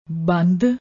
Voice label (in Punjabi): "bandd", Punjabi; ਬੰਦ; wrist, wristband, bracelet, strap; joint, limb; suffix indicating restriction, limitation